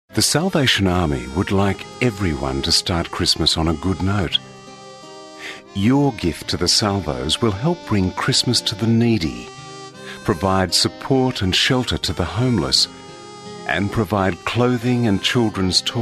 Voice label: soft